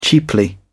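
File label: "cheaply", British English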